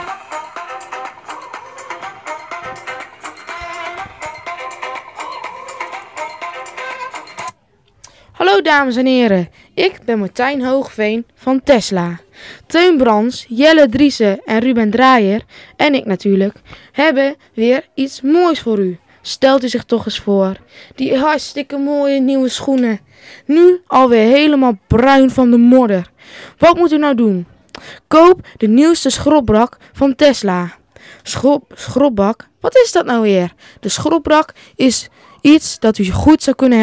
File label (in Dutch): Reclame; Tesla